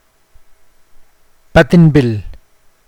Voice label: "puddle", Mokilese

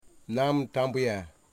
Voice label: "bitch", Wamut

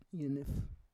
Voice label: "yenef", Roon

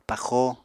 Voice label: "paxo", apatani

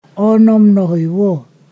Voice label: "six thousand", Ponosakan

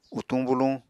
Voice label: "utuñ buluñ", Apatani

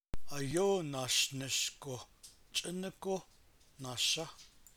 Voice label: navajo particle; melt it snow 1st person plural; yishhį́į́h melt it (snow)

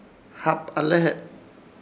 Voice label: "Hapalehe", Ishir Chamacoco; espera

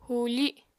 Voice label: "hùufi", Apatani

